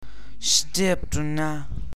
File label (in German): Narr